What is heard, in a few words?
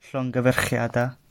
congratulations, gwentian